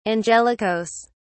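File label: "angelicas", British English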